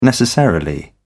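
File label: "necessarily", British English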